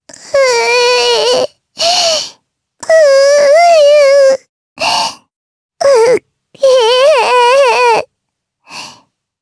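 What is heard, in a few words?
Vox Sad, Japanese; voices; heroes; Lewsia A; kingsraid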